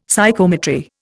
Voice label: "Psychometry", Indian; Dictionary

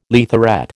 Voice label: "leatherette", American

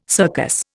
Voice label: "circus", Indian

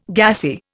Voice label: "gassy", Indian

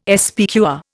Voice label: "SPQR", Indian

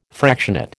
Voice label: "fractionate", American

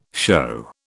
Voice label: "shew", British

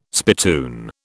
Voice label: "spittoon", British

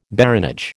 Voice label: "baronage", American

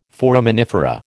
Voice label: "foraminifera", American

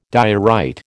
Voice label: "diorite", American

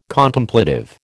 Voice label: "Contemplative", American

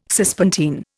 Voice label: "Cispontine", Indian

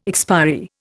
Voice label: "expiry", Indian

